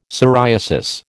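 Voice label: "psoriasis", American English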